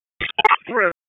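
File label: EMS DISP, radio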